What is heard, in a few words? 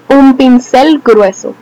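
paintbrush large description Spanish; tuxpaint-stamps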